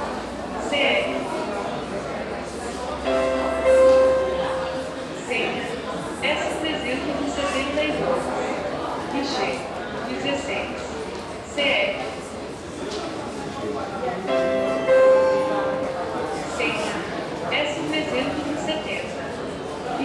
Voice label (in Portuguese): Chamada de Senha em Atendimento do NaHora da Rodoviaria Plano Piloto